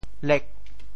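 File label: "lek8", Teochew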